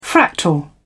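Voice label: "fractal", English, British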